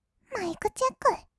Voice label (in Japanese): "マイクチェック", kawaii voice; ASMR; 色々なワンショット; 1200 kawaii voice!!